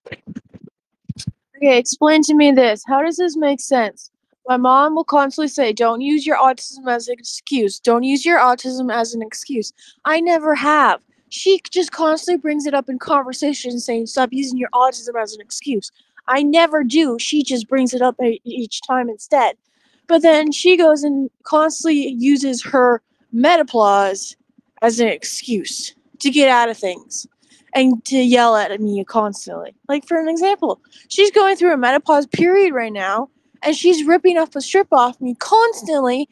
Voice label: voice message